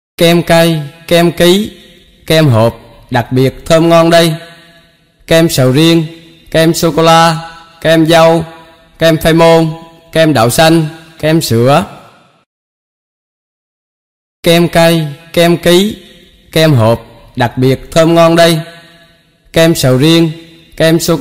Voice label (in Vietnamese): Tieng rao ban kem ca rem dao